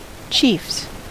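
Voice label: "chiefs", American English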